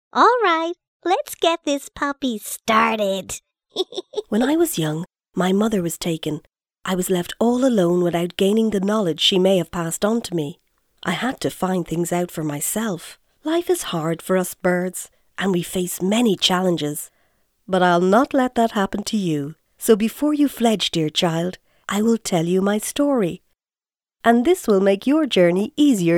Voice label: Dry; Voice Realm